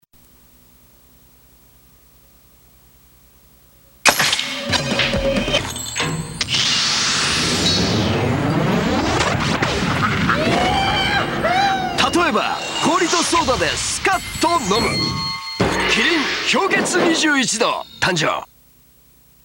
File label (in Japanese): ナレーションサンプル; キリン氷結21℃登場